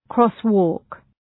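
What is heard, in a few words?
"crosswalk", English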